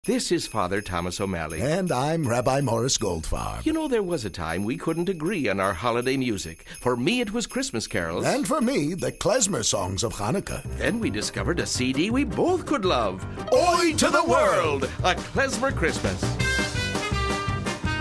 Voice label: radio commercial